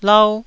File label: "lau1", Taiwanese syllable